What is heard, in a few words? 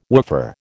"woofer", American